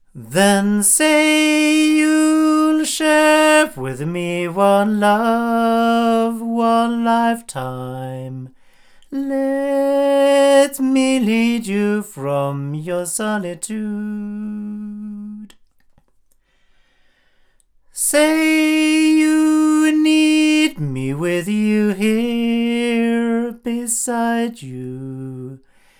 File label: MT47 omni male; TSM